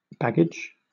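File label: "baggage" British English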